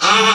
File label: VOICE, VOICE-FX